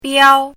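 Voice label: "biao" first tone, pinyin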